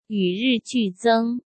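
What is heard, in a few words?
"与日俱增", Mandarin Chinese; hsk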